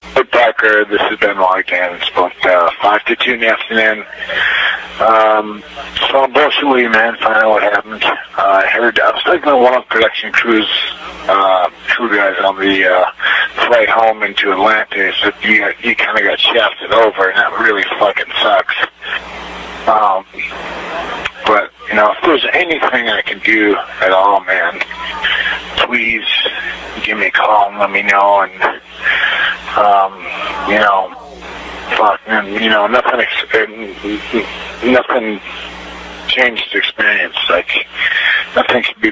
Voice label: Voice Message; Chris Benoit